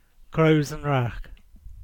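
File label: "Crows An Wra", Cornish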